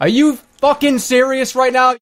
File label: jeers; scout